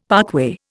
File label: "parkway", Indian; Dictionary